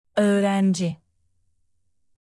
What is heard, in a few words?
"öğrenci", Turkish, native